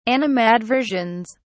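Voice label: "animadversions", British English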